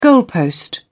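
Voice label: "goalpost", British English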